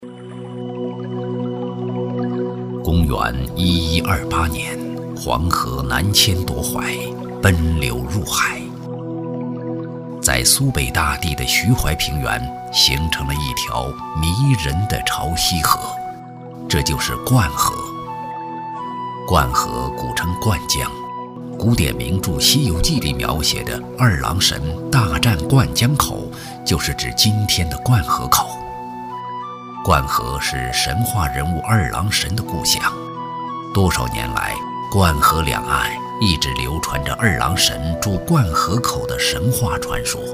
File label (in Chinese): 男 纪录片 沧桑 厚重, 国语男声配音; 杨家堡; 大气专题; 男97大气专题